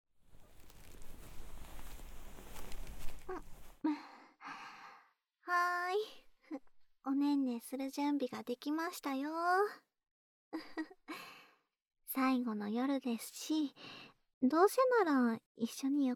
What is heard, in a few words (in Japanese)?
添い寝, ASMR; おやすみなさい、大好きなお兄さん♪